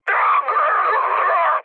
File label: damaged pilot, speech cze, mood low; War Thunder